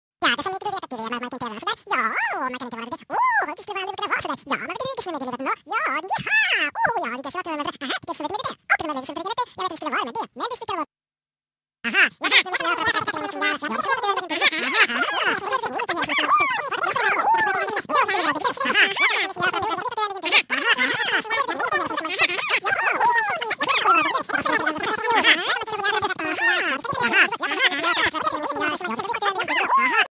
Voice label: Cartoon talking phone; تلفن حرف زدن کارتونی